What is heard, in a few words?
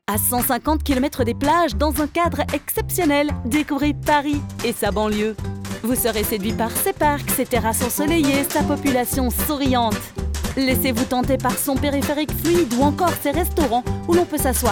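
French, Commercial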